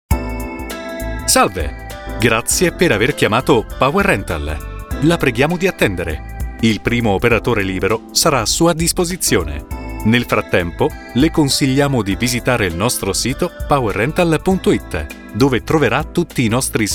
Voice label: voce maschile per messaggi segreteria telefonica

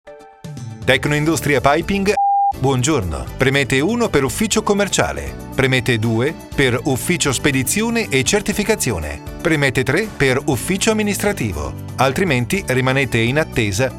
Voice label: IVR SEGRETERIA TELEFONICA

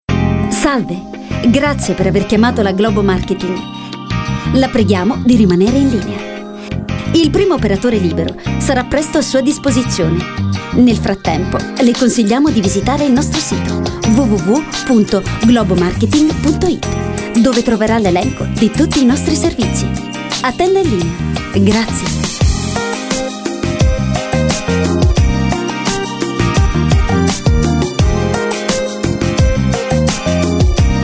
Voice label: segreteria base slow

